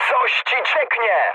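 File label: tank damaged, mood high, Polish speech; War Thunder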